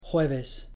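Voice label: "jueves", Spanish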